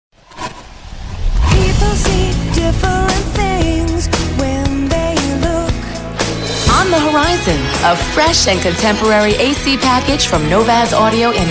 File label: Jingle Liners Radio